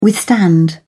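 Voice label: "withstand", English, British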